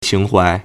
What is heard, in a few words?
"qing2 huai2", Chinese